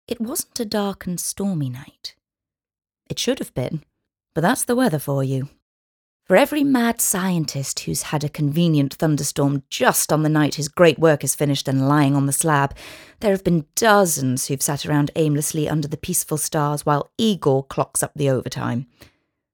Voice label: Narrative

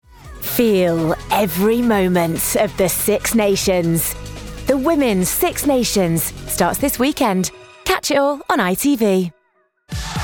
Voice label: Promo

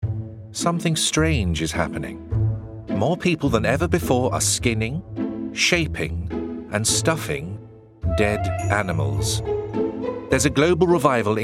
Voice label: Documentary

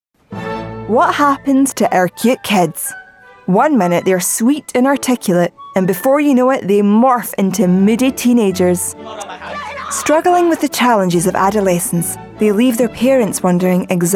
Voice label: Narrative

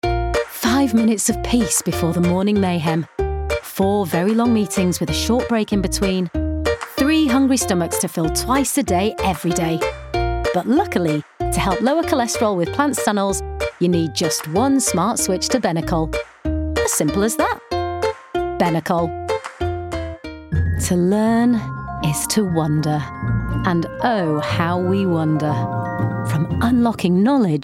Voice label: Commercial

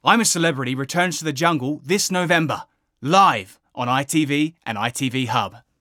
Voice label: Promo

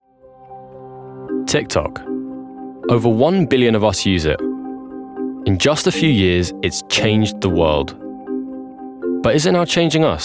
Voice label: Documentary

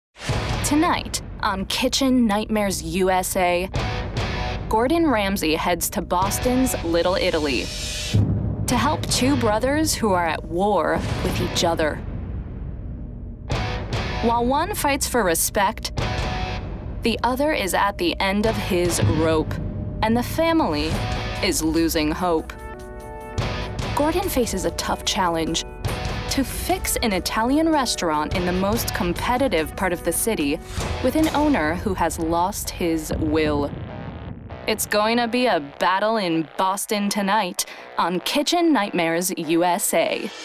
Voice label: Narration

